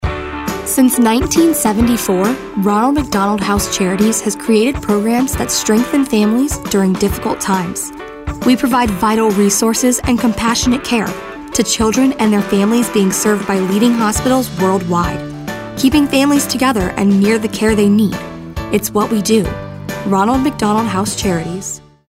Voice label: warm